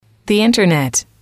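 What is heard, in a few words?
"the internet", English, female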